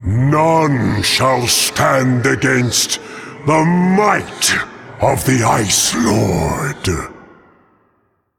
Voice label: Male Elemental In Game Emote Response